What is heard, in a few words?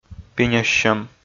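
"пиняссьӧм", Komi, male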